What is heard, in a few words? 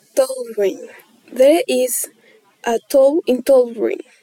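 "towel", English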